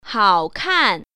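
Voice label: "hao3kan4", Mandarin Chinese Tone Pair Drills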